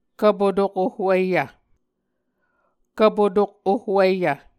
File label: "kvpotok ohwiyv", Muscogee